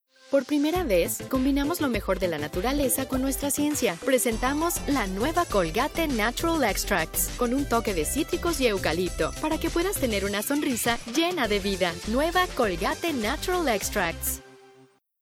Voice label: female Mexican tv comercial